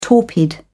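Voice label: "torpid", English, British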